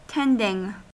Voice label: "tending", US; pronunciations